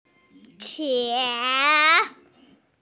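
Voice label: "tian2", Chinese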